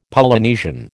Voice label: "Polynesian", American

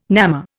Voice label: "namma", Indian

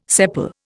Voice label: "sepal", Indian

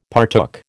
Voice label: "partook", American

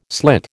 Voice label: "slit", American

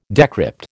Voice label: "decrypt", American

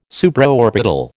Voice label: "Supraorbital", American

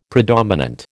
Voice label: "predominant", American

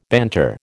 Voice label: "banter", American

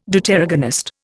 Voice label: "Deuteragonist", Indian

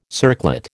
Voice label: "circlet", American

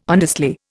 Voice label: "honestly", Indian

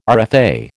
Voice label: "RFA", American

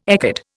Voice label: "ecad", Indian

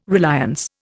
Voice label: "reliance", Indian

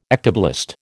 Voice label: "ectoblast", American

